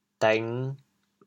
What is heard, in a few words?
"dêng5", chaoyang